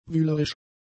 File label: "wühlerisch", German; adjectives